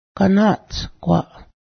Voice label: cup, Cayuga